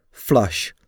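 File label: "flush", English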